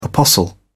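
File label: "apostle", British English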